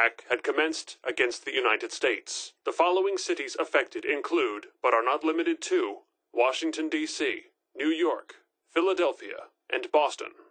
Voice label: Civil Alert System Broadcast; fallout